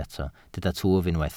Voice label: "twf", Welsh